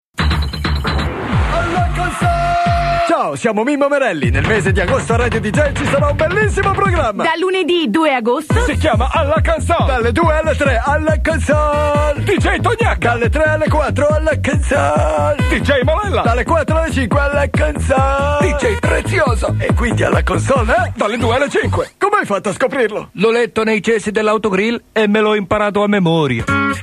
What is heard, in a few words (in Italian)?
alla consolle spot